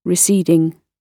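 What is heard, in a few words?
"receding", British English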